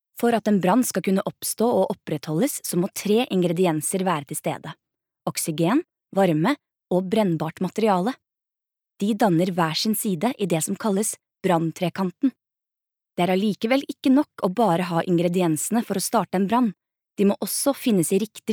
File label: no elearning